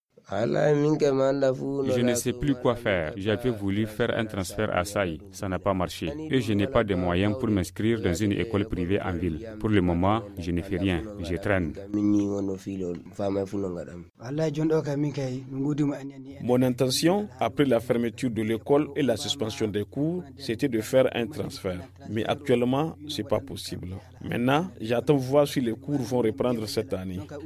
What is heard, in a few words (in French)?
VOX POP; FR; FERMETURE ECOLES GUELADIO